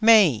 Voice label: "mei4", Taiwanese